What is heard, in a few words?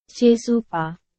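Burmese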